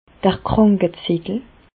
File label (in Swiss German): "krankezettel", Bas Rhin; medecine; feuille de soins